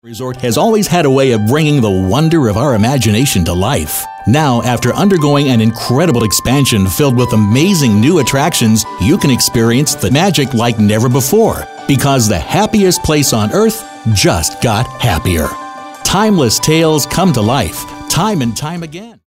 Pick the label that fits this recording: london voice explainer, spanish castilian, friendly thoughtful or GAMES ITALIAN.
friendly thoughtful